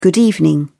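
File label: "good evening", British English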